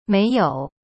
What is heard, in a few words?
"没有", Chinese; mei you; cyberchinese